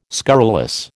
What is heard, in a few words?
"scurrilous", American